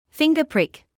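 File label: "finger prick" English, British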